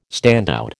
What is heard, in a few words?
"standout", American